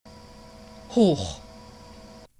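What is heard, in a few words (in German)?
"hoch", German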